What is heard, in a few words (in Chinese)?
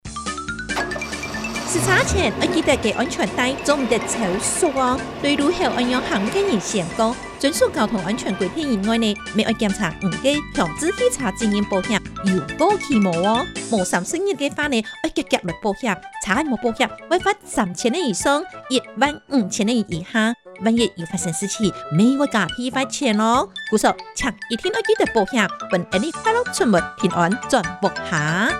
客語; 汽車族投保與交通安全篇; 11月30日起，微型電動二輪車新車須投保強制險才能上路，現行已領有合格標章行駛上路的微型電動二輪車，應該在施行後2年內投保強制險。